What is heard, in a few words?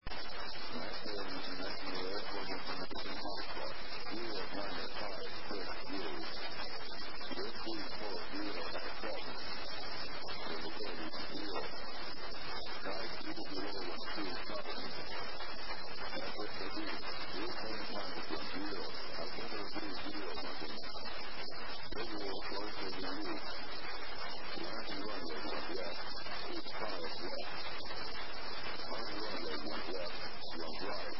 ATIS, USA; las